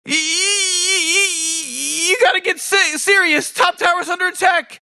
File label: announcer top tower under attack; Dota 2; rick and morty announcer